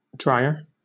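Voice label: "drier", British English; pronunciations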